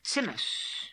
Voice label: "Tzimus", pronounciations; Fourth Way; Beelzebub